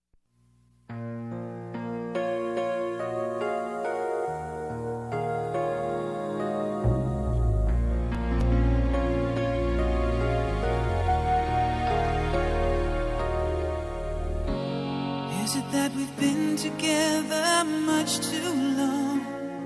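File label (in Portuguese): reconcilia fem, Telemensagens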